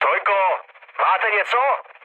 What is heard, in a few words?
chat, speech Czech; War Thunder